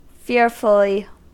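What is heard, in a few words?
"fearfully", American English; pronunciations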